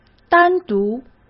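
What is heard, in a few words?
"单独", Mandarin Chinese; chinese-flashcards; hsk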